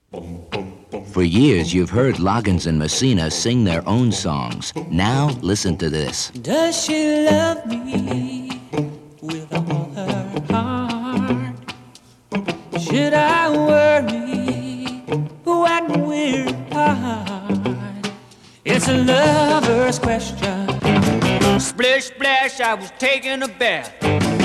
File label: Radio Commercials; 1975